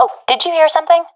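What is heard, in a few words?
heard sound, professional female; vo; Counter Strike Model Pack; CS:GO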